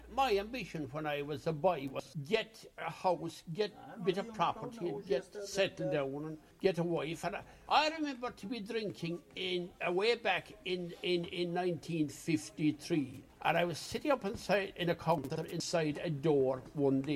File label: Sneem Ireland Male 70s; Munster; south west ireland